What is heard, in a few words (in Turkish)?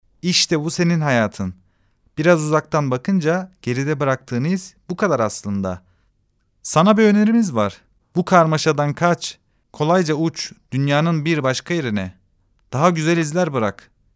reklam